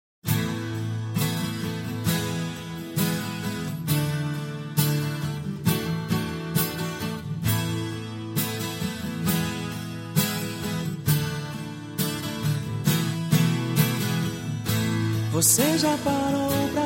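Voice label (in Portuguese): Evangélica Aniversário de Irmao, male, telemensagens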